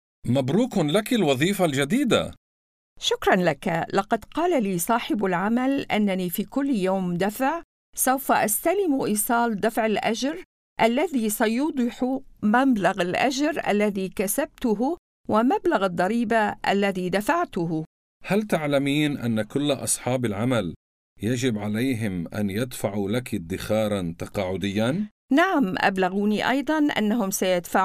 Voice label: working in australia arabic

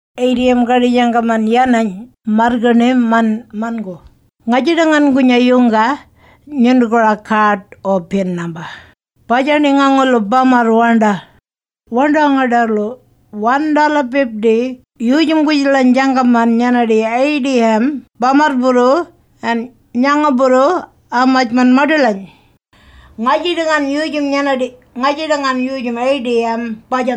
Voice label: walmajarri atm

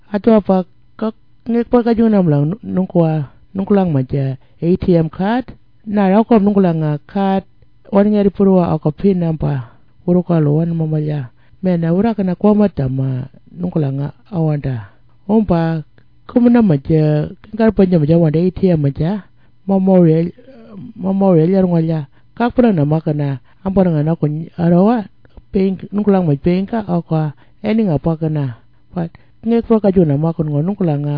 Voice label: anindilyakwa

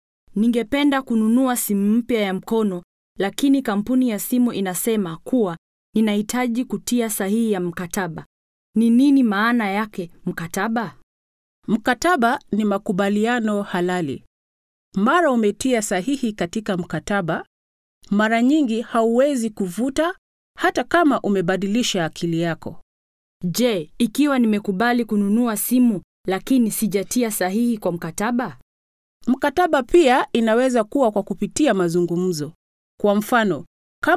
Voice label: contracts swahili

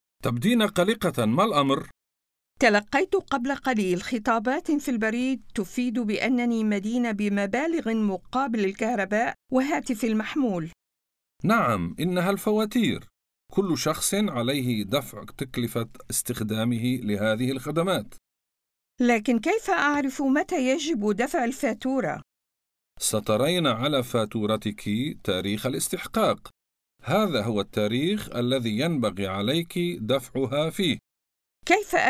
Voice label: paying bills arabic

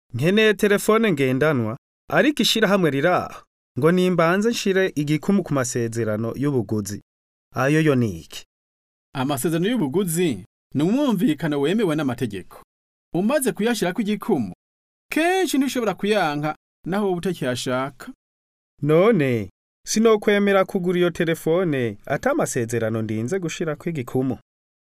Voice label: contracts kirundi

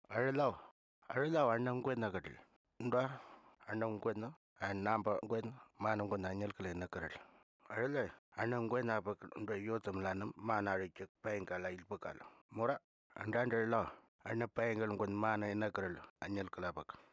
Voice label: anmatjere

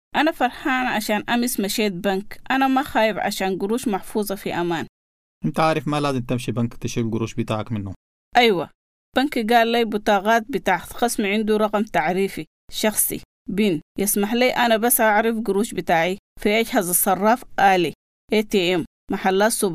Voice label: banking sudanese

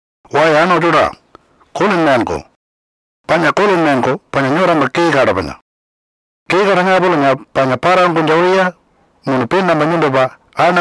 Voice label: pitjantjatjara

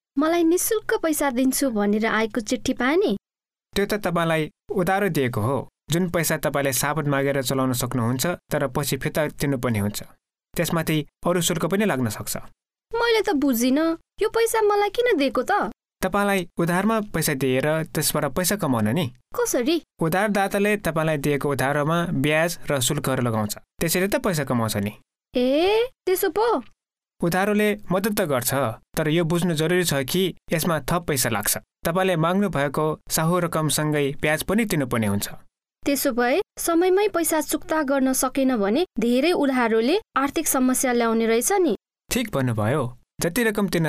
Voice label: nepalese; credit

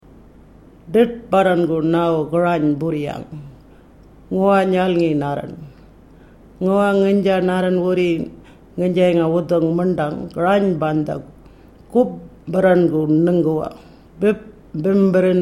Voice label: atm message miriwoong kununurra

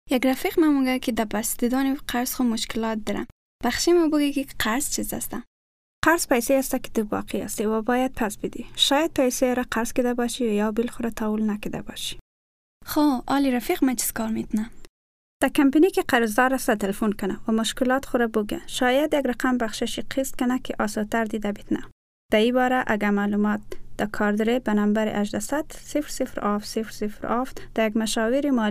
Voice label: debt, hazaragi

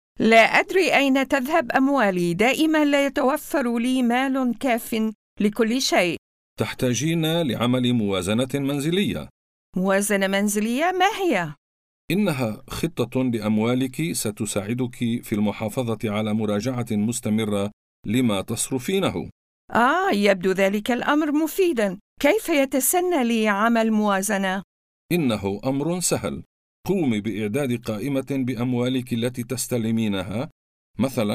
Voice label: household budgets arabic

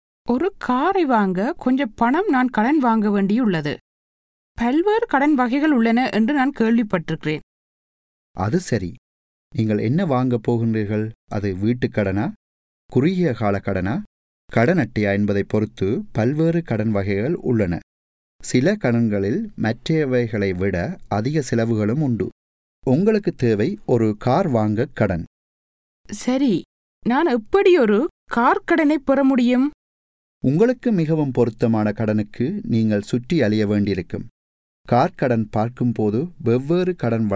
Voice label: types of credit tamil

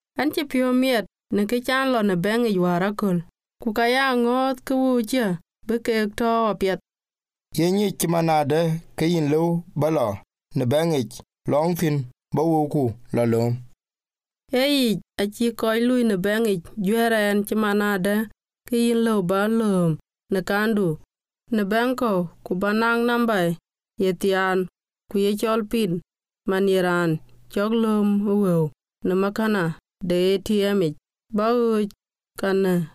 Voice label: banking dinka

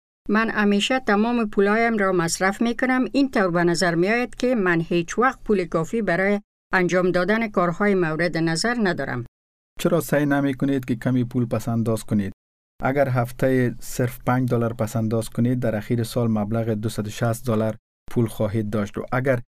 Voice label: saving money dari

